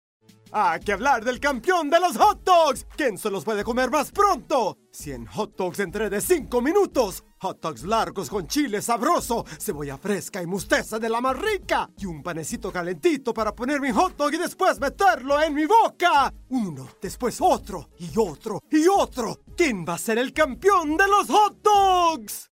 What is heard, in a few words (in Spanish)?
perros calientes castellano tono de llamada